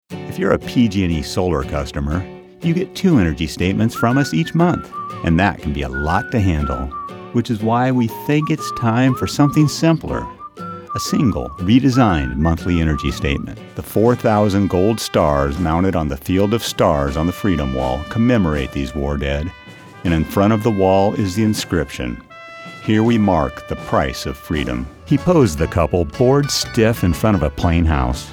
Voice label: Narration